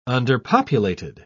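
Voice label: "underpopulated", English, British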